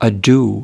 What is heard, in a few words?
"ado", us